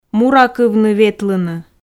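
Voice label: "муракывны-ветлыны", Komi, female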